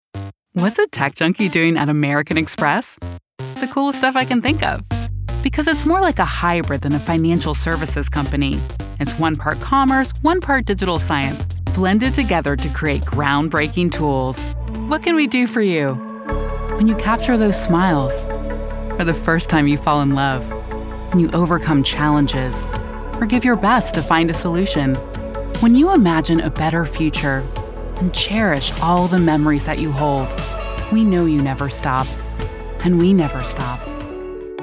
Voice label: Corporate

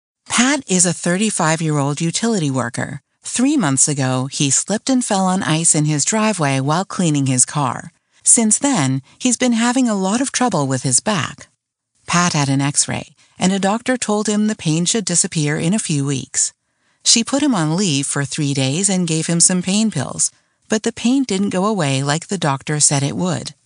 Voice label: ELearning

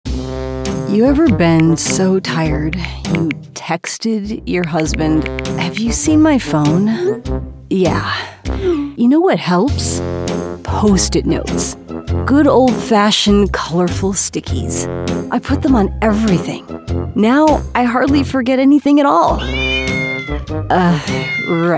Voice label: Commercial VO; Post It

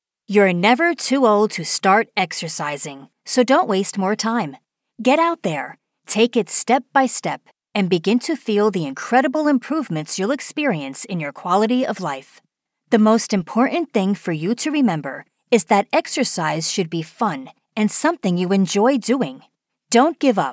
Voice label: Audiobook Sample Non Fiction

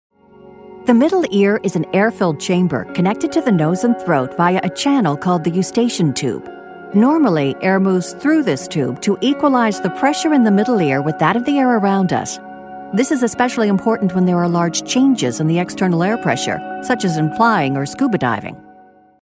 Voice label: Training Video

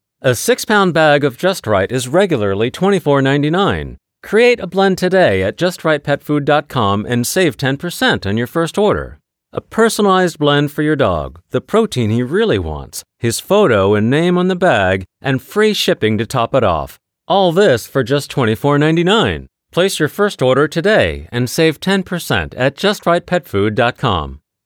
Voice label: salesman; Purina Just Right

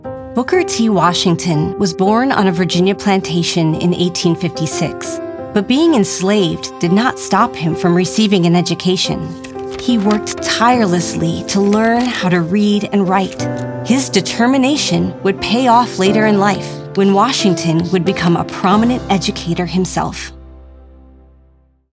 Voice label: Historical Documentary Narration

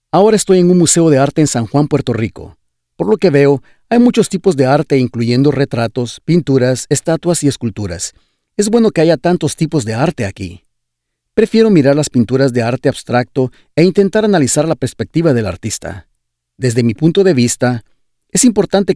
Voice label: Audio Guide Spanish Puerto Rico Museum

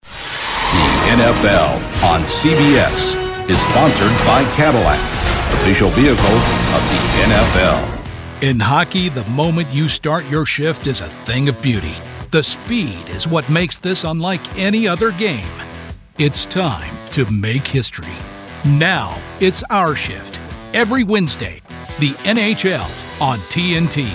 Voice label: Sports Promo

